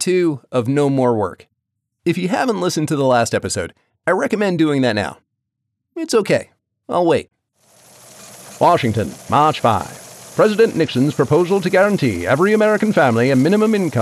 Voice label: Podcast